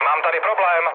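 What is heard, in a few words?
aircraft damaged, Czech, mood med; War Thunder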